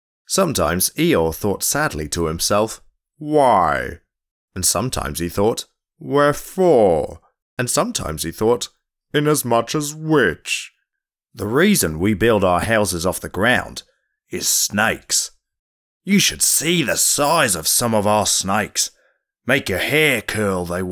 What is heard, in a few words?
Childrens